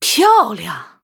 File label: WOW, zh